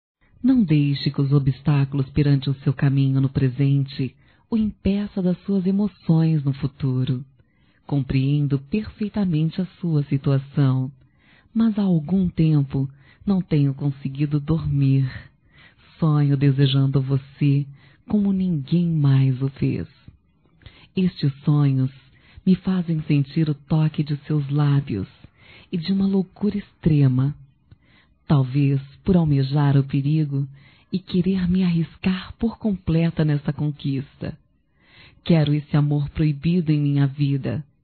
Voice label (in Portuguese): Feminino; Amor; Telemensagens 2017